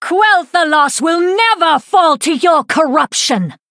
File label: Female Blood Elf In Game Boss Idle